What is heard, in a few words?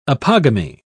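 "apogamy", British English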